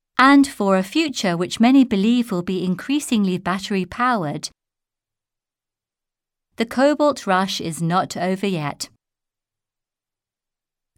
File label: news, british english